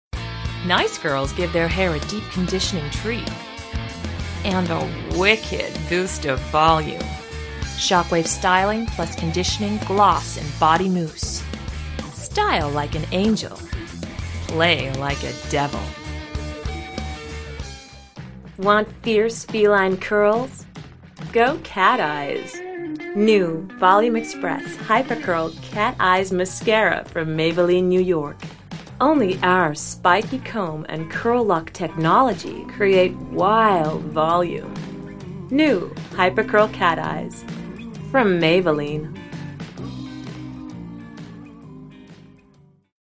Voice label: Commercials American Accent

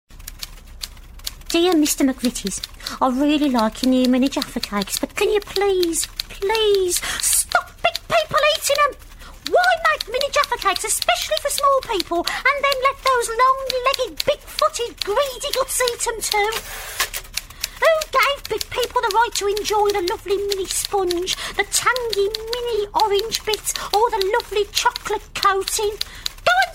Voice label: Commercial; Mini jaffas

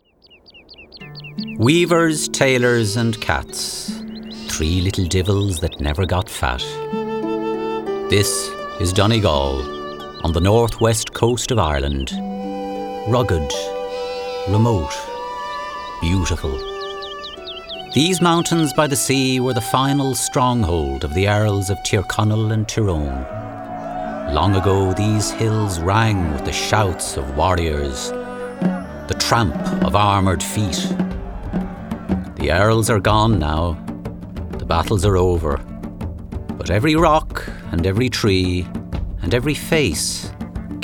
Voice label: Narration; Weavers; Vocal Point

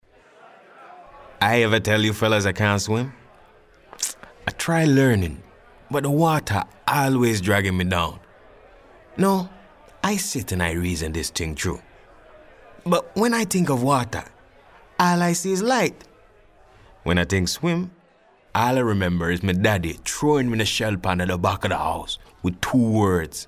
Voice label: Narration Jamaican; Jamaican Airman

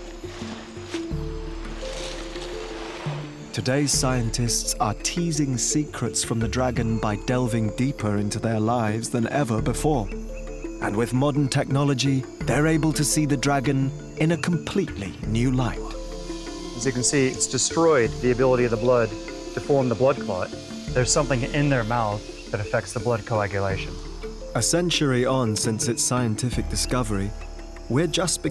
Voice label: Natural World Doc

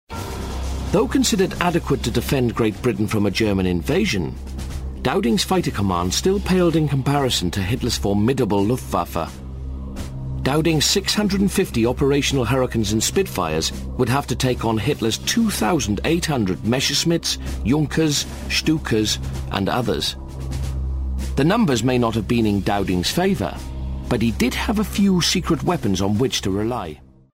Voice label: Narration Ww2 doc

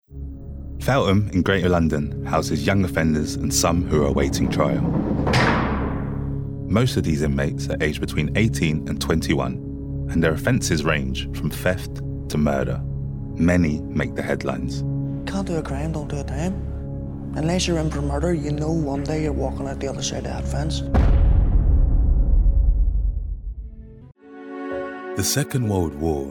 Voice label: Narrative Mix

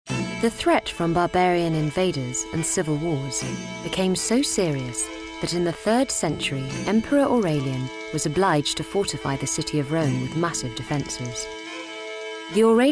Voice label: Documentary

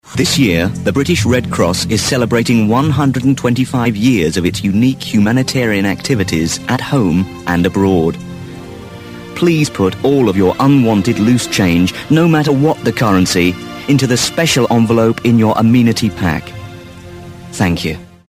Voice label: Commercial; Red cross; Vocal Point

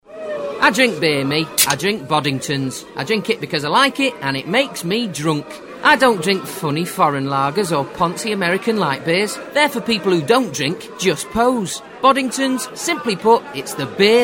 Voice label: Commercial; Boddingtons; Vocal Point

